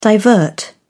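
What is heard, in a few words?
"divert", British English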